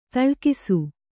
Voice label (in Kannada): "ತಳ್ಕಿಸು", Kannada